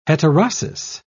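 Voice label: "heterosis", English, British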